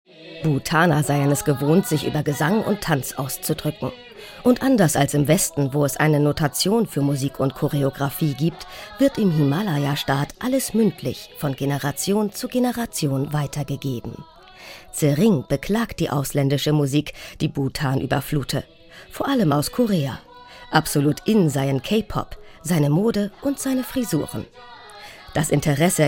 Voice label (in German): Reportage; Bhutan Zwischen Tradition und Moderne